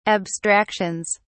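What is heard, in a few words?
"abstractions", English, British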